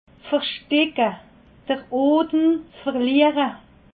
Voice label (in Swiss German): "versticke", alsace